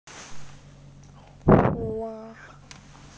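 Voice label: "hua1", Mandarin Chinese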